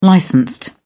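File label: "licensed", British English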